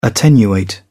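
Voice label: "attenuate", English, British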